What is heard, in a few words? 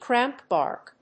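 "cramp bark", English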